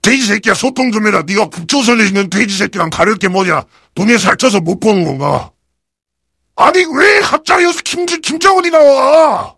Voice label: heavy jeers